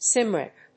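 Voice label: "Cymric", English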